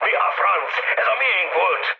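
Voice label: Hungarian player killed ally mood med, speech; War Thunder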